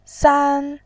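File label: "san", Mandarin Chinese, first tone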